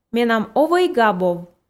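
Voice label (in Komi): менам овӧй, Komi, female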